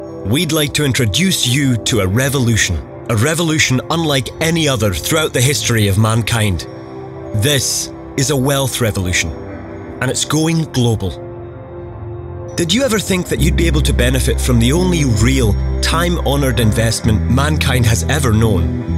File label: Accent Southern Scottish English Corporate